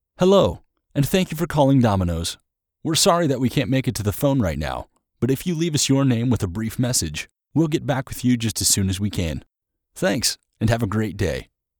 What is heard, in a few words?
phone